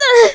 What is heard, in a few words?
hurt